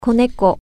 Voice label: kitten, japanese

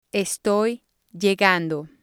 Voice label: "estoy llegando", spanish

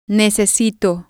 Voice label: "necesito", spanish

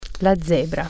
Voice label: zebra, italian

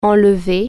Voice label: "take off", French

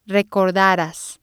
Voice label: "recordaras", spanish

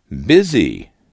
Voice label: "busy", English

